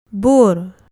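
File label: "bur", Malay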